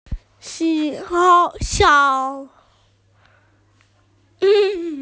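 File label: "sou1", Mandarin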